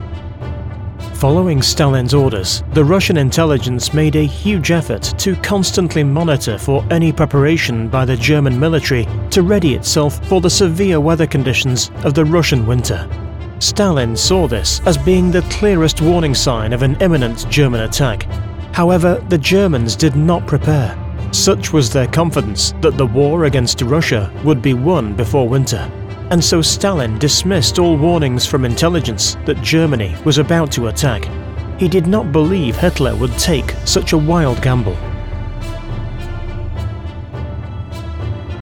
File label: english british documentaries